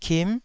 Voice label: "kim9", taiwanese syllables